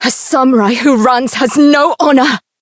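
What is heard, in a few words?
Voice encounter fear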